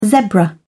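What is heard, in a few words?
"zebra", British English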